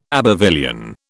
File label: "Abbevillian", British